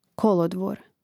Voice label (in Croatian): "kolodvor", Hrvatski; Hrvatski mrežni rječnik; imenica; Mrežnik